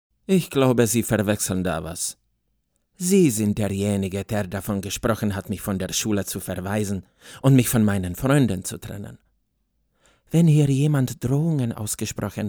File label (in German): Deutsch mit tschechischem Akzent